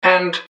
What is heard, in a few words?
"change and", english man, subway announcers; MetrostroiAddon